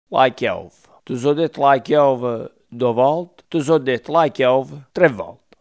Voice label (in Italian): "chiave", coratino; CLĀVE; Archivio di parlato dialettale del progetto Galileo G14-126